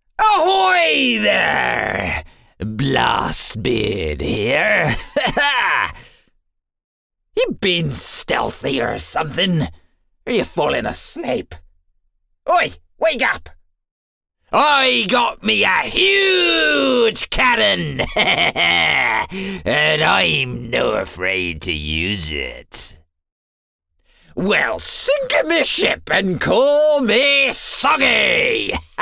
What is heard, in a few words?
english british animation